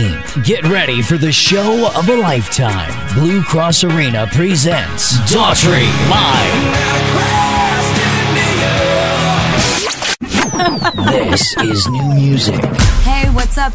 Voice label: english american radio imaging

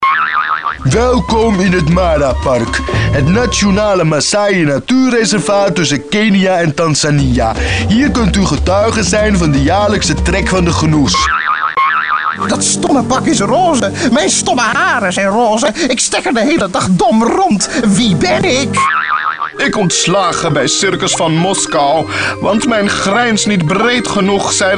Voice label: dutch video games

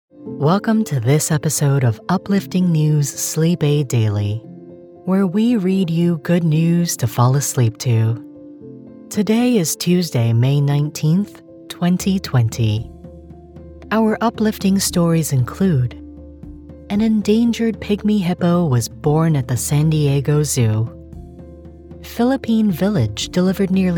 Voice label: english american podcasts

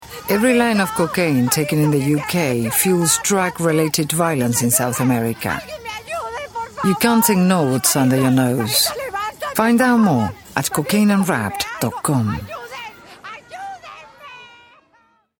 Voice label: spanish latin american movie trailers

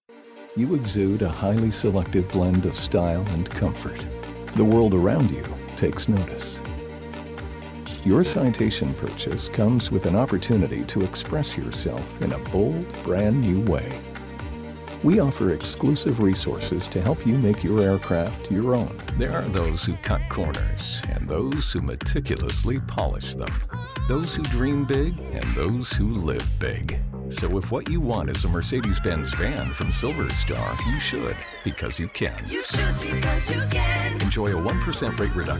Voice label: english canadian commercial

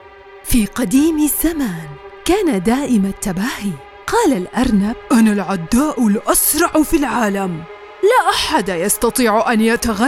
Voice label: arabic animation, arabic voice actors

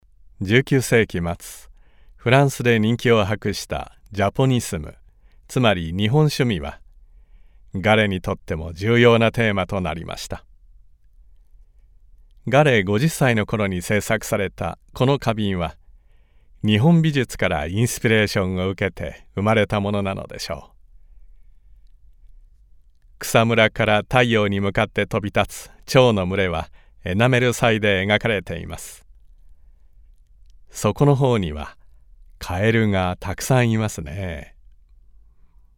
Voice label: japanese e-learning

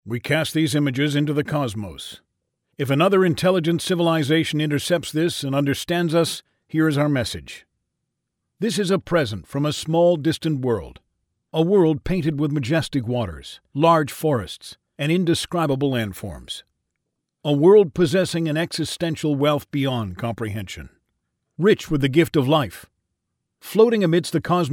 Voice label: english canadian documentaries

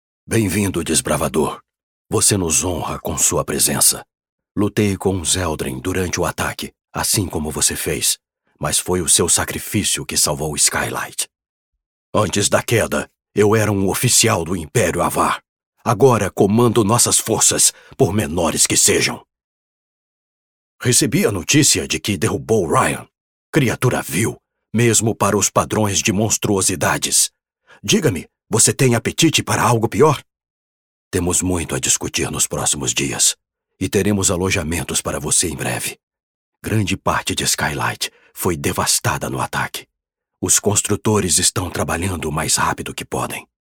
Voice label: Portuguese Brazilian video games

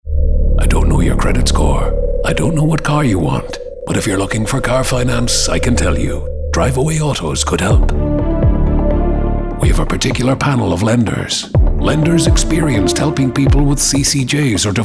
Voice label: english irish automotive

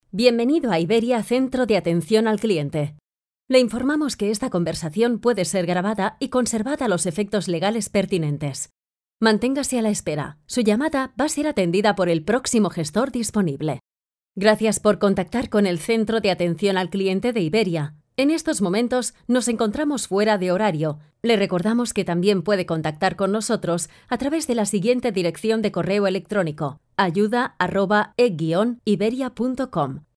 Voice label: spanish ivr

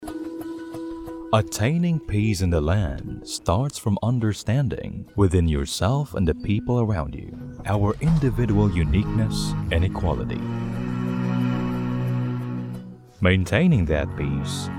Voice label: english audiobooks; zertifizierter Synchronsprecher

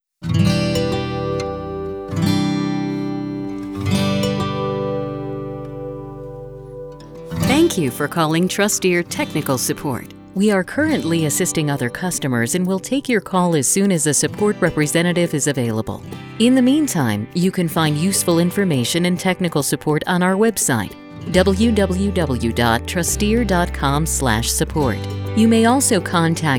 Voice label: IVR; Trusteer

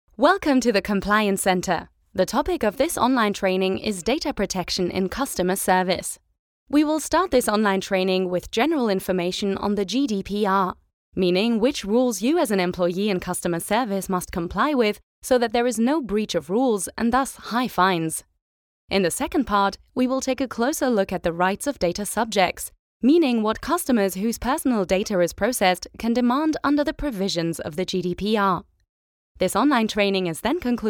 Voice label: English e-learning

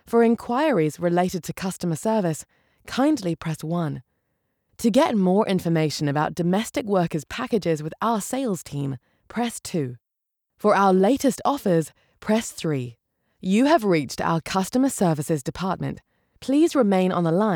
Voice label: english international ivr

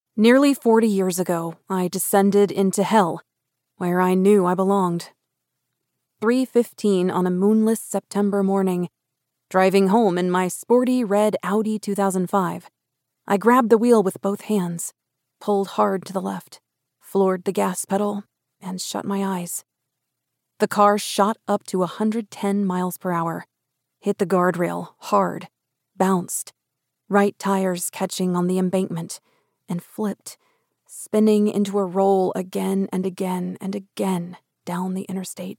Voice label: english american audiobooks

